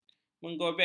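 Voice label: "menggobek", Malay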